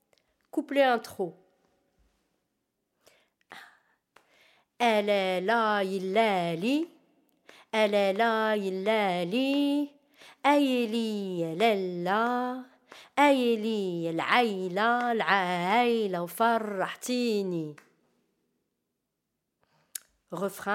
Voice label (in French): FARAHTSINI PRONONCIATION EN RYTHME